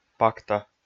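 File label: "пакта", Komi, male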